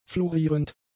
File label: "florierend", German; adjectives